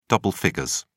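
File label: "double figures", British English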